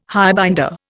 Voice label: "highbinder", Indian